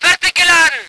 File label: voice ger vehicle